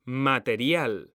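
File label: "material", Spanish, male; mekanta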